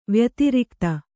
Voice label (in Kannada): "ವ್ಯತಿರಿಕ್ತ", Kannada